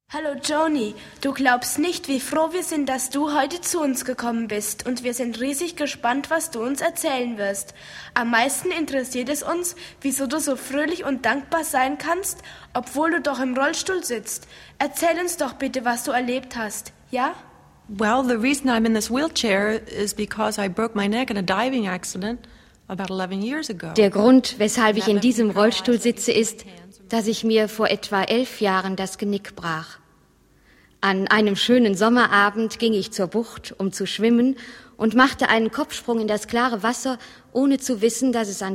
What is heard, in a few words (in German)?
erzaehlt Hoerszene; Joni Eareckson Tada